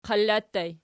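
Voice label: "qalla" ergative plural, Archi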